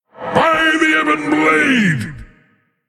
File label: Male Human Attack